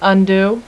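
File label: "undo", female; voice; Web Clip Empire 150,000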